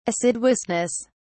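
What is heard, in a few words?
"assiduousness", British English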